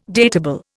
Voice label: "datable", Indian

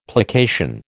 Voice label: "plication", American

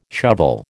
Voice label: "shovel", American

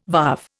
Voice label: "varve", Indian

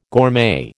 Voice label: "gourmet", American

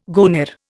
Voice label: "goner", Indian

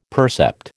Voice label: "percept", American

